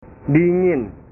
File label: "dingin", bahasa